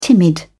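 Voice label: "timid", British English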